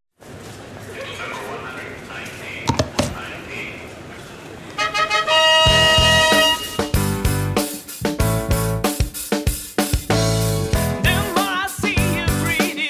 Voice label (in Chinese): 男 国语男声配音